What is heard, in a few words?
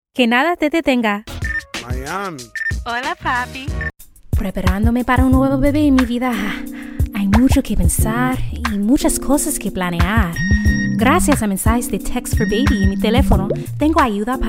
Spanish